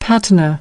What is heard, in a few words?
"patina", American English